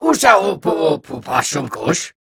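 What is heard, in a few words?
Grunt look long time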